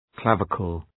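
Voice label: "clavicle", English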